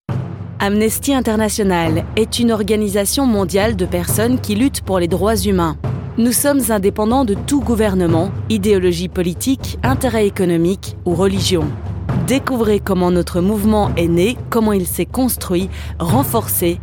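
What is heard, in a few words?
FR Corporate, French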